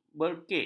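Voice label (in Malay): "berkik", Malay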